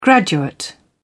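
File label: "graduate", English, British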